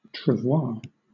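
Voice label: "travois", British English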